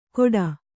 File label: "ಘೋಟಾ", kannada